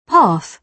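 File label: "path", British English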